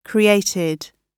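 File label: "created", British English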